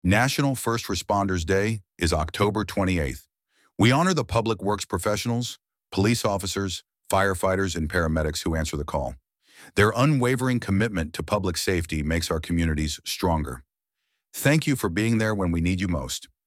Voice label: Male Voice